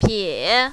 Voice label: "pie3", mandarin, female